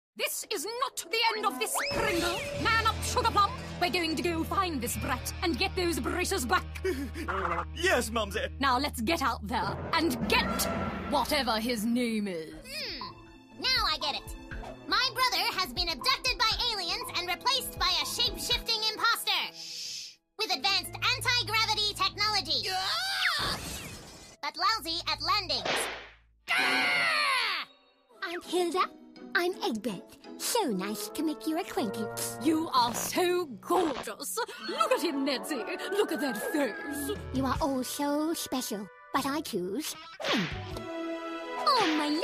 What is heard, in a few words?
character; EM Voices